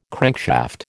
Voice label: "crankshaft", American